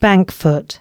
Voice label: announcements, female

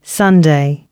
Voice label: female, announcements

